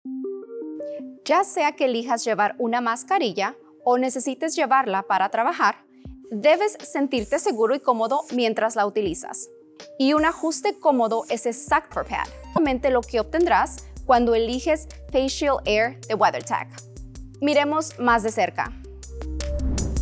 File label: Spanish; FaceShield Air